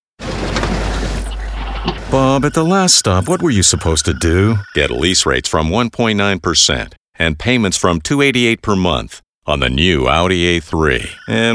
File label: Commercials